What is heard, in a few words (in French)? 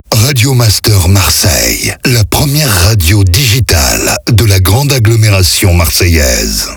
1ère radio Marseille Slow, Accap; Voix off; Radio Master Marseille